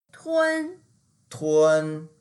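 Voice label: "tun1", Mandarin Chinese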